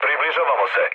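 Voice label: Serbian near waypoint mood med; War Thunder; speech srb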